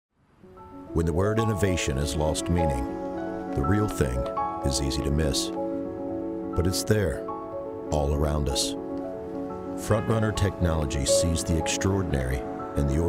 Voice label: Ad; FrontRunner FireFly

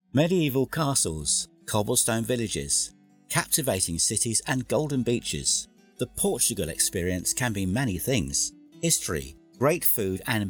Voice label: Travel documentary

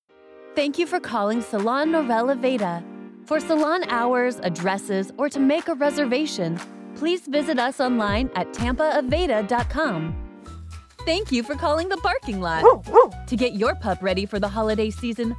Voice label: Phone IVR

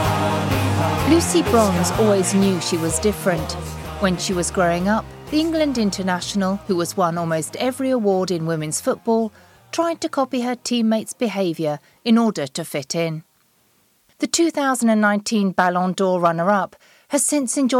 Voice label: English learning sample, RP British

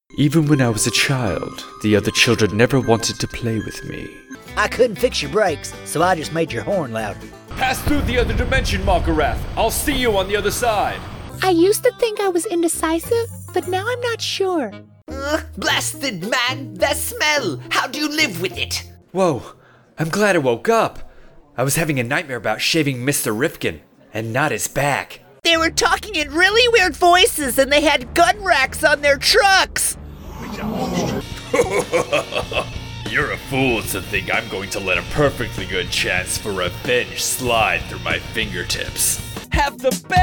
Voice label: Animation

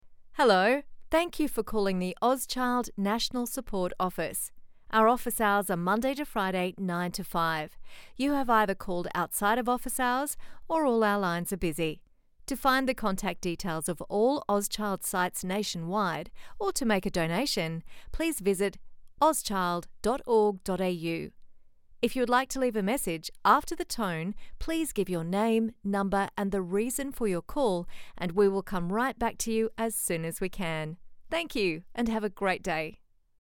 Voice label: on hold; Ozchild

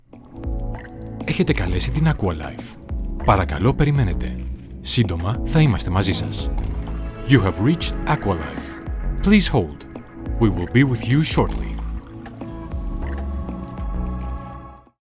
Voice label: telephone; aqualife

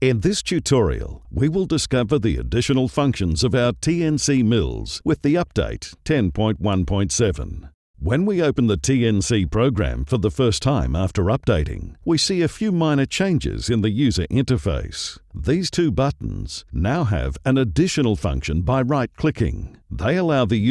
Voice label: Explainer

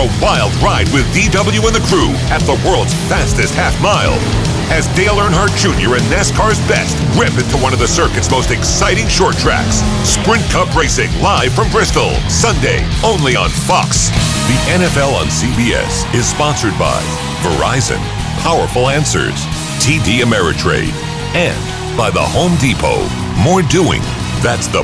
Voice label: Sports Promos

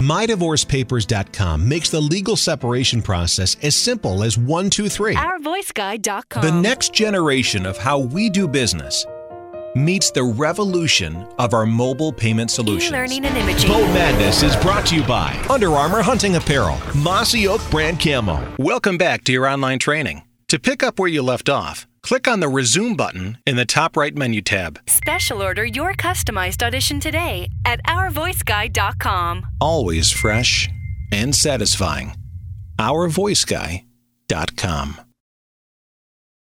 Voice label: Voice Over

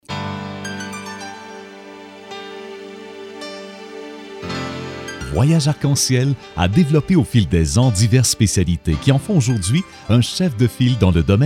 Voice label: On Hold

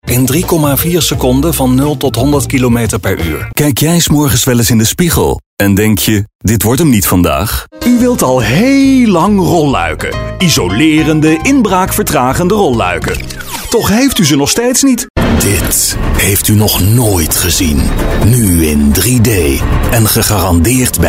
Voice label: 60 sec commercial

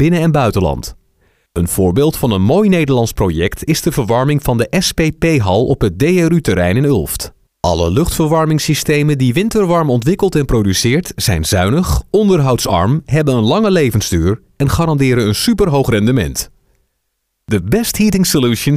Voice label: Voice-Over Corporate Variety

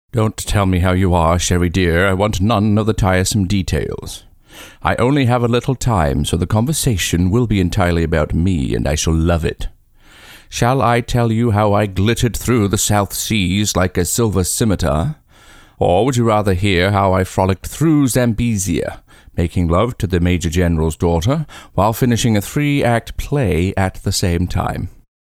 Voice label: Received Pronunciation

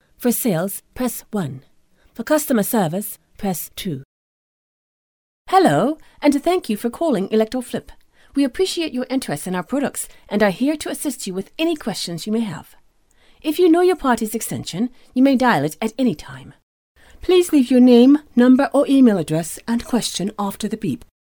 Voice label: British Voicemail

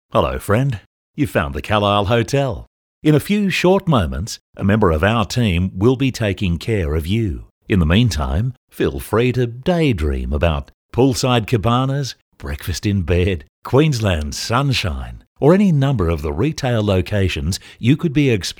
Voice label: ON HOLD

